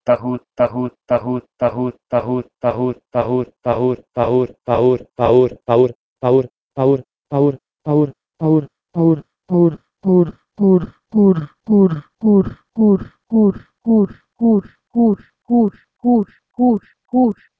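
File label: Proto-Indo-European pahur to Armenian hur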